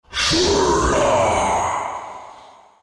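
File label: win, chinese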